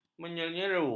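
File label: "menyeru", Malay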